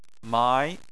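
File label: "mai", Chinese, second tone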